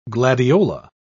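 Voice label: "gladiola", English, British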